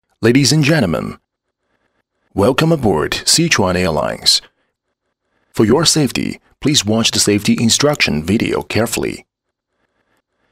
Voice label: 英男, 英文专题, 飞机广播

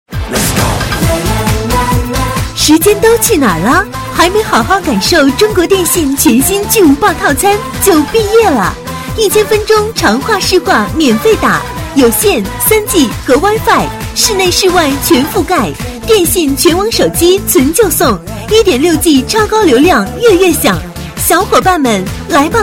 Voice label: 女, 促销广告, 国语女声; 中国电信巨无霸套餐

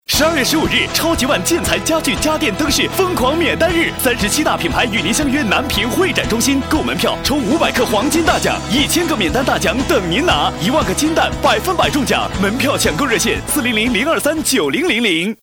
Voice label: 男 建材 激情; 超级碗

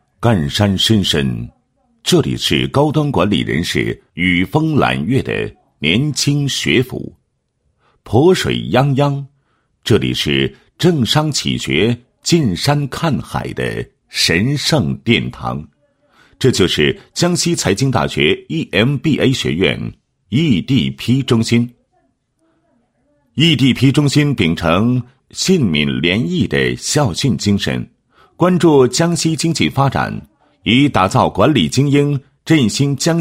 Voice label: 男 学校专题 宣传; 江西财经大学EMBA学院EDP中心

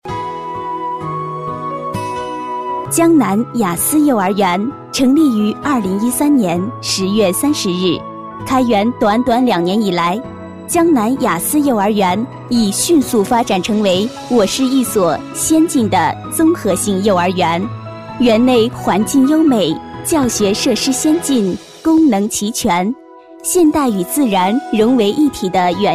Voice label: 女, 专题, 幼儿园解说, 国语女声, 年轻

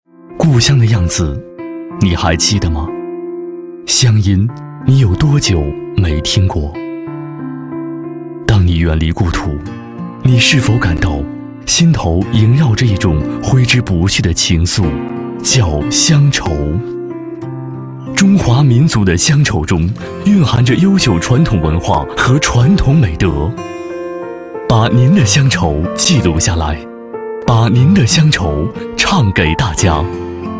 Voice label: 男 宣传片 温暖大气; CCTV

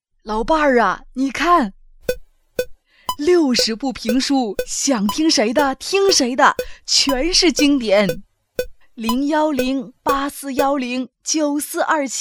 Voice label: 女 模仿 老太太

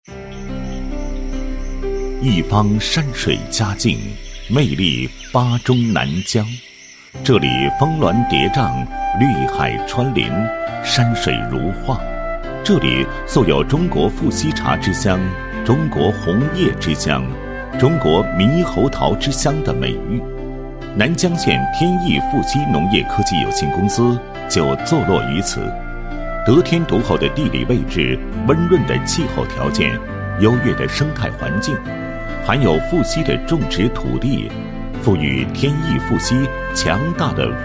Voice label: 男 农业企业宣传 打造中国生态水果农场品第一品牌; 天益富硒农业

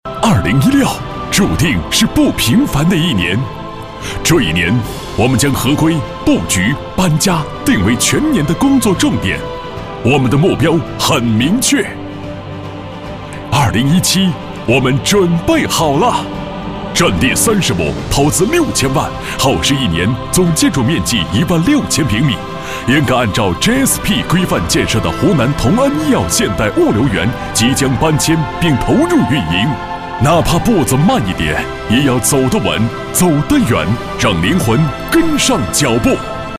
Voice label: 男 公司企业宣传

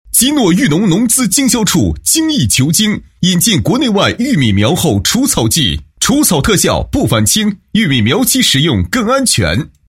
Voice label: 男 宣传广告 农资经销处宣传; 金诺裕农

